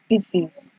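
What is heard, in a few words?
"pieces", Nigerian Pidgin, female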